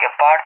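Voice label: "Gepard", English, Spain; AirDefence; DCS; Allied Flight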